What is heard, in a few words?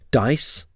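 "dice", English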